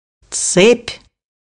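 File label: "chain", Russian; bukvar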